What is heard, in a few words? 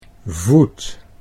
"voet", Dutch